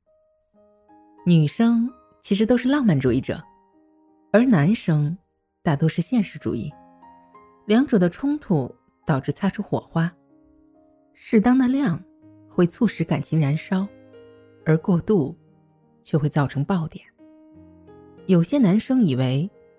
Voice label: 恋爱配音

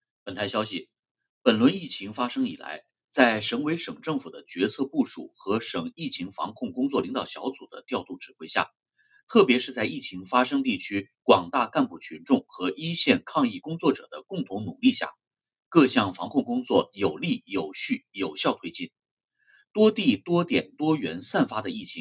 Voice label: 新闻播音

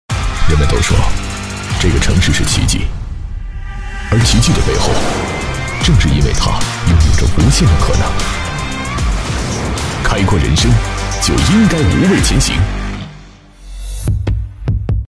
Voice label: 广告; 奥迪秒版

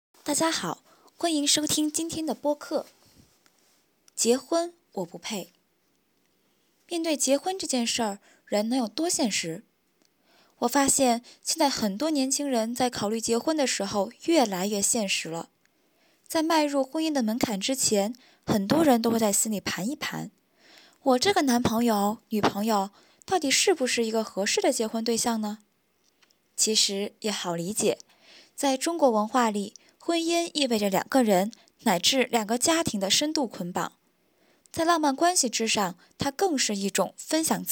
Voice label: 播客; 结婚我不配

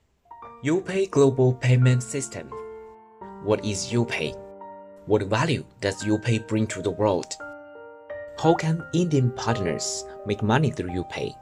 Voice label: 英语磁性美文配音

